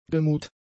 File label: "gemuht", German; adjectives